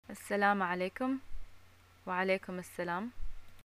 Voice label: greeting, arabic